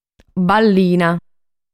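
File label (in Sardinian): "Ballina", Sardinian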